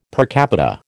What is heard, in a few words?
"per capita", American